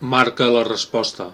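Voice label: check answer, Chinese; gcompris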